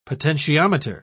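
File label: "potentiometer", British English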